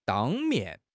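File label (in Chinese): "当面", Mandarin Chinese; HSK6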